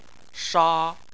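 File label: "sha" tone 1, Chinese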